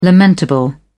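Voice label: "lamentable", British English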